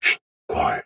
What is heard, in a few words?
patrol spoken soldier sword agent male; Sinecure